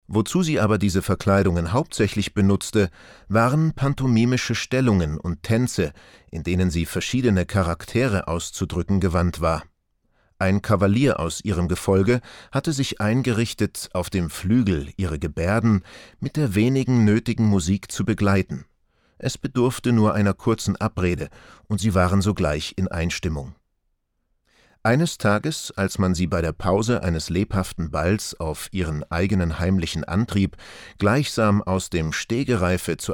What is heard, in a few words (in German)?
Hörbuch; Die Wahlverwandtschaften